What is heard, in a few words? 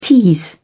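"tease", British English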